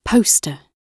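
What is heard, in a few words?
"poster" British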